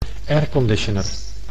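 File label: "airconditioner", Dutch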